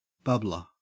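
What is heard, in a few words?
"bubbler", Australian English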